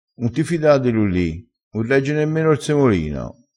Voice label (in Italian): "un regge", dialettale